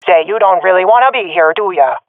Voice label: sees friend killed, swat fem; vo; agents; Source Model Packs; Counter Strike Model Pack; CS2